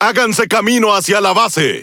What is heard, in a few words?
Es Speech Quick Commands Enemy Base; World Of Warships